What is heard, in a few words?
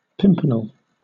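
"pimpernel", British English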